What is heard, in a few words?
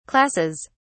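"classes", English, uk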